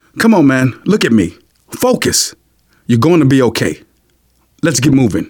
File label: revive friend, survivor voice manager